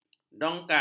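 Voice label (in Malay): "dongkang", Malay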